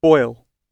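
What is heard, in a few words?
"boil" British English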